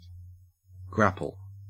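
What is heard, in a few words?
"grapple", Australian English; pronunciations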